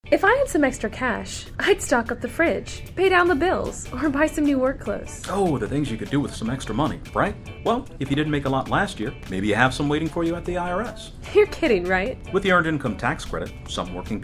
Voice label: Radio PSA English; EITC